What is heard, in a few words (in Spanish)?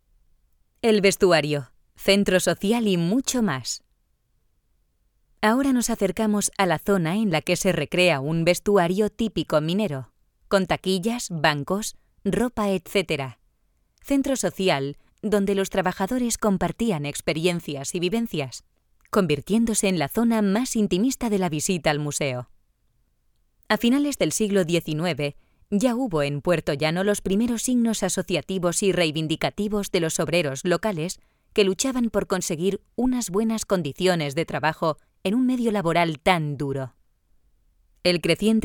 audioguia; audioguia museo de la mineria; esp